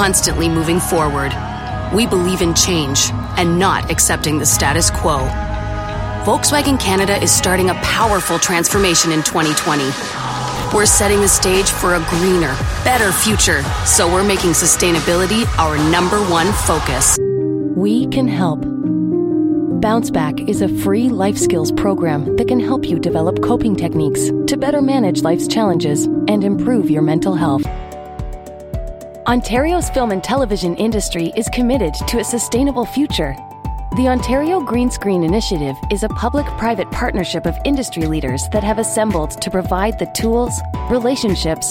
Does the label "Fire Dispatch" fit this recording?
No